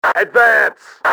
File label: advance, radio male; XBOX